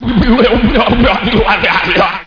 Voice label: gibberish